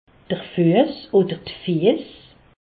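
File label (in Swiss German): "fuess", Alsace, Haut Rhin; pieds; medecine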